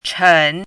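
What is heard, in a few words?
"chen" third tone, pinyin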